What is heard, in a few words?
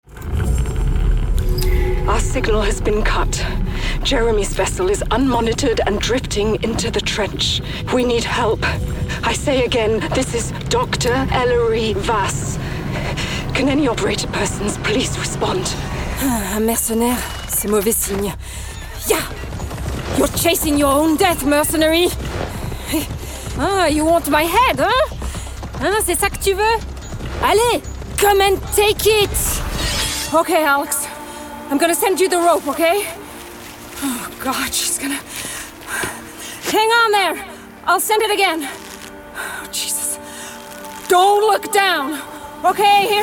English Gaming